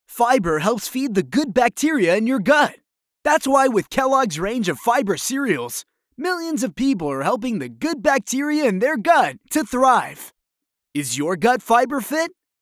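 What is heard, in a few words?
English US, male, commercial; voice over; american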